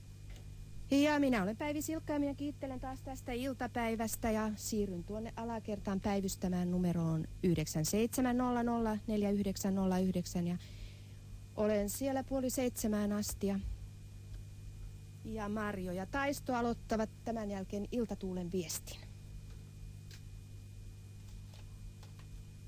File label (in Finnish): loppujuonto